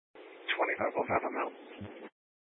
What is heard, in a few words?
Mesa County Sheriff Dispatch